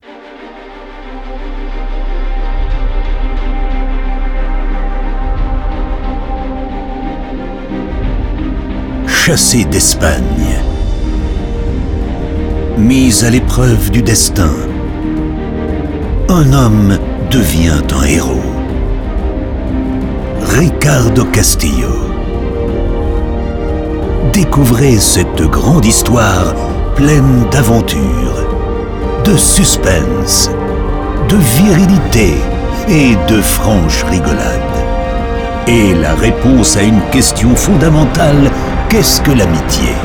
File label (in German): Trailer